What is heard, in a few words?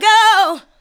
SPOKEN VOX, ADLIPS